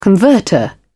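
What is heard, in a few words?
"converter", British English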